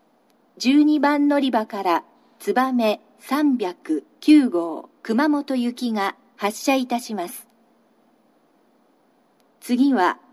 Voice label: 12番のりば発車放送; 新玉名; つばめ309号 熊本行き

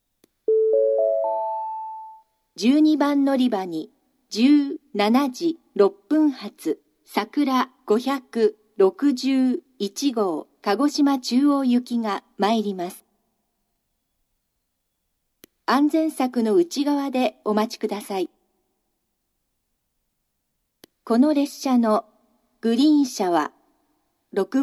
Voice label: 12番のりば予告放送, 新幹線ホーム; 筑後船小屋; 12番のりば予告放送（さくら561号 鹿児島中央行き）

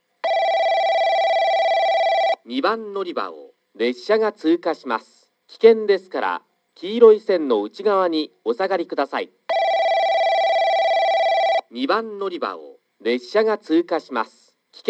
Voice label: 通過放送; JACROS簡易詳細型・JACROS簡易型

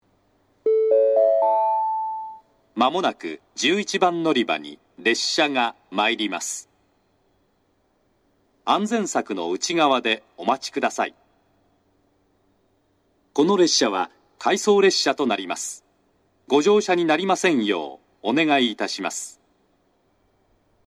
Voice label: 11番のりば接近予告放送（当駅止まり→回送列車）, 長崎 西九州新幹線ホーム 自動放送; 入線の概ね4～5分前に流れます

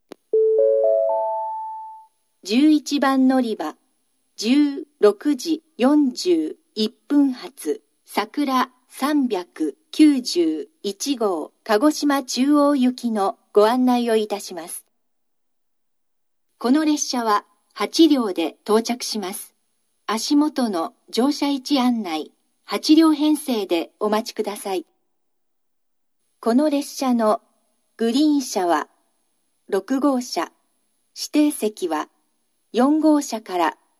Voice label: 新水俣, 11番のりば案内放送; さくら391号 鹿児島中央行き; 臨時列車; 九州新幹線SIRIUS型（シリウス）; スピーカーは安全柵内蔵型です。; 15分前、9分前の2回流れます。; shin minamata

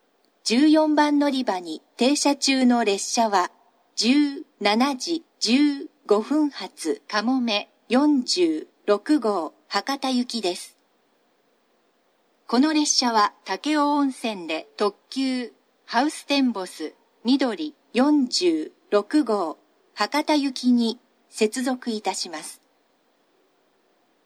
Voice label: 長崎 西九州新幹線ホーム 自動放送, 14番のりば停車中放送; かもめ46号 博多行き）※武雄温泉で、ハウステンボス・みどり46号に接続、停車駅が二日市まで

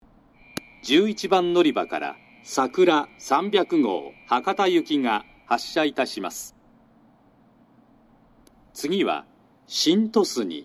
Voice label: 11番のりば発車放送（さくら300号 博多行き）, 久留米（新幹線ホーム）

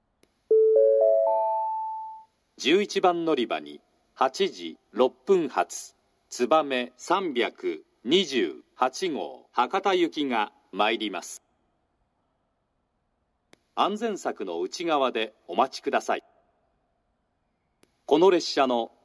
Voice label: 11番のりば予告放送, 新幹線ホーム; 新鳥栖; つばめ328号 博多行き; 博多で「のぞみ16号 東京行き」に接続

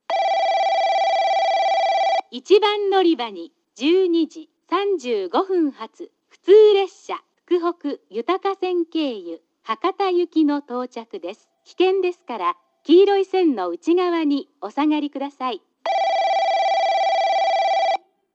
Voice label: 1番のりば接近放送（福北ゆたか線経由・博多行き）

